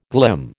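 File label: "glim", American English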